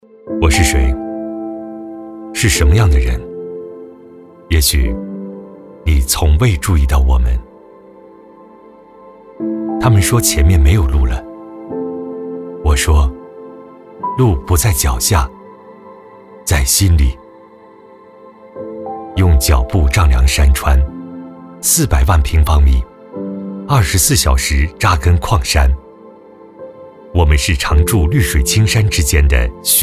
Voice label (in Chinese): 男, 走心旁白